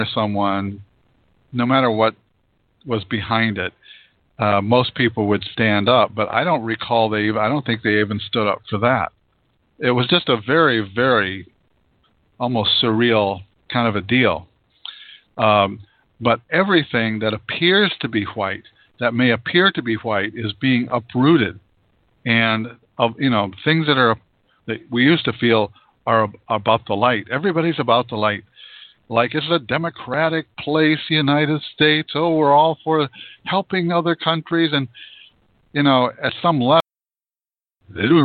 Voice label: Reverse Speech; kp radio hawaii